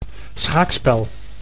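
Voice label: "schaakspel", Dutch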